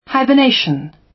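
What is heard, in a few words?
"hibernation", British; Flora and fauna, agriculture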